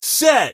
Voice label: "set", Male, Voiceover; Kenney's Sound Pack

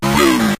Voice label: "moon", synth voice; Kenney's Sound Pack

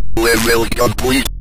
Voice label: level complete, Synth Voice; Kenney's Sound Pack; Synth Voice 1

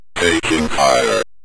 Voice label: taking Fire, Synth Voice; Kenney's Sound Pack

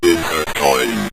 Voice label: insert Coin, Synth Voice; Kenney's Sound Pack

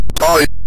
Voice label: "tie", synth voice; Kenney's Sound Pack; Synth Voice 1

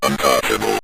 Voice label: "untouchable", Synth Voice; Kenney's Sound Pack

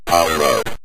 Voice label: power Up, Synth Voice; Kenney's Sound Pack

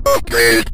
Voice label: "upgrade", Synth Voice; Kenney's Sound Pack; Synth Voice 1

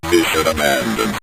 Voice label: mission Abandoned, Synth Voice; Kenney's Sound Pack

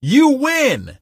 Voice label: you win, Male; Kenney's Sound Pack; Voiceover

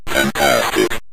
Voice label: fantastic, Synth Voice; Kenney's Sound Pack

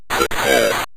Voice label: "success", Synth Voice; Kenney's Sound Pack

